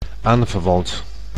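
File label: "aanverwant", Dutch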